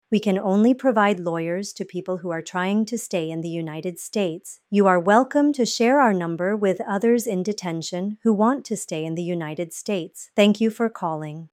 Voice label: English; ijc hotline